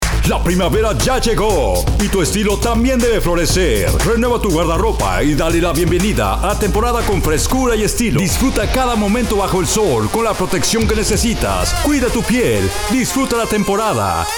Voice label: Spanish, Spanish Voice Over

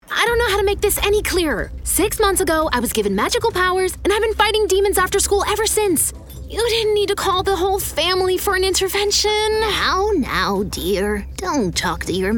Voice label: Character, character voices

